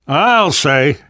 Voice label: generic responses, survivor voice; namvet; Left 4 Dead 2; left4dead2 dlc2